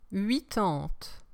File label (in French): "huitante", French